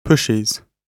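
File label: "pushes", British English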